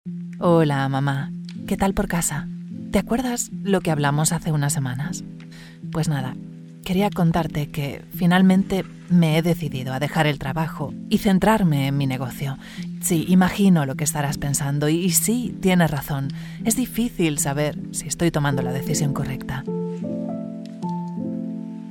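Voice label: Castilian Spanish natural, Spanish Female Voiceover, commercial voiceover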